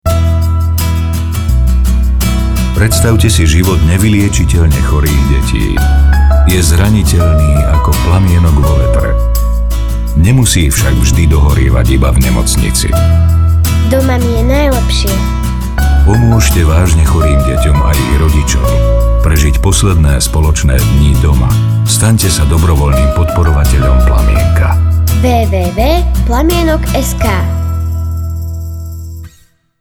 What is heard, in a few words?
radio spot; PLAMIENOK